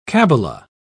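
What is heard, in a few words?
"cabala", British English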